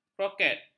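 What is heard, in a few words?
"kroket", Malay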